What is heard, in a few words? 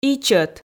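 "ичӧт", Komi, female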